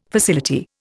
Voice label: "facility", Indian

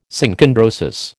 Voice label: "Synchondrosis", American

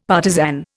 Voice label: "partisan", Indian; Dictionary

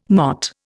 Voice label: "MOT", Indian

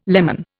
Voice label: "lemon", Indian

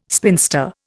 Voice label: "spinster", Indian

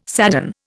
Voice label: "sadden", Indian

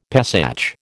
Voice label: "Pesach", American

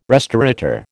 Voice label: "restaurateur", American

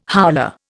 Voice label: "howler", Indian

